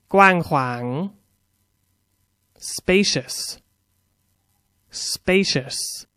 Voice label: "spacious", English; Adj

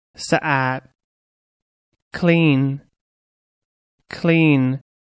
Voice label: "clean", English; adj

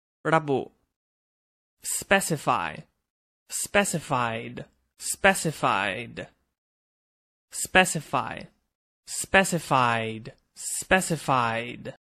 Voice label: "specify", English; Verb

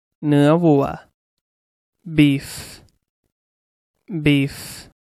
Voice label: "beef", English; noun

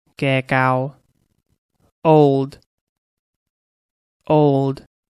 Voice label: "old", English; adj

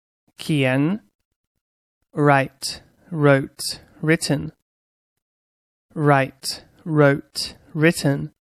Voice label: "write", English

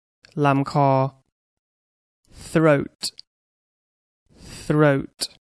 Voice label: "throat", English; vocab body

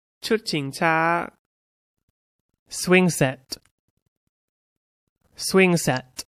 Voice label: "swing set", English; Toys

